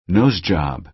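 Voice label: "nose job", American English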